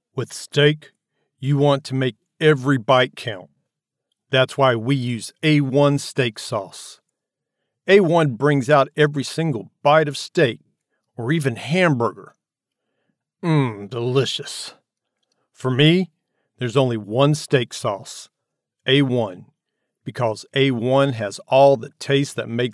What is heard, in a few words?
Food commercial